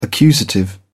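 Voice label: "accusative", British English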